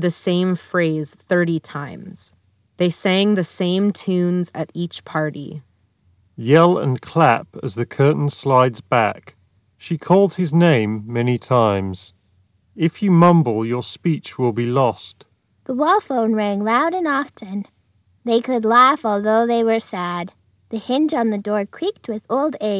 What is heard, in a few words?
Ideal microphone sample; Jabra Elite 4 Active